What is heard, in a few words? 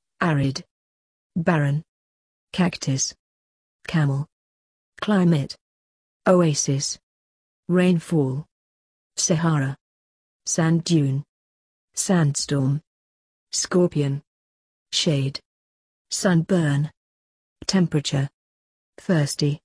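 desert, British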